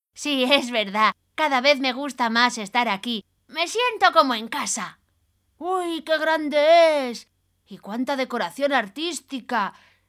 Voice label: female spanish kids comercial